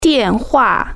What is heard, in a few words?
"phone", Chinese